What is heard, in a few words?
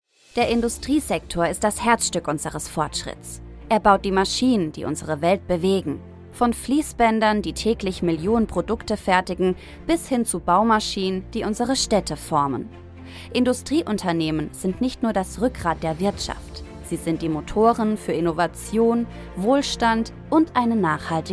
German explainer videos